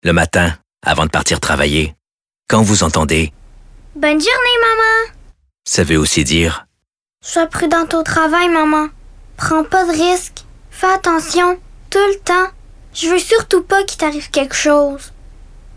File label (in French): Fillette; Démo voix; Français; CNESST; FM RAD